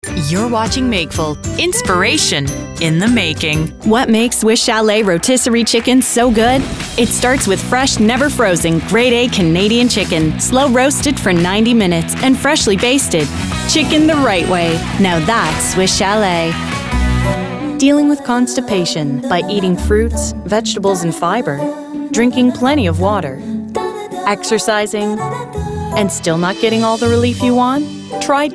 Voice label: Commercial and Narration